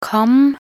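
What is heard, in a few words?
"kam", Norwegian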